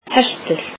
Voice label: "horse", Icelandic

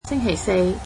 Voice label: Thursday, cantonese

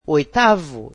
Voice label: "eighth", Portuguese

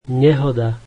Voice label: slovak accident

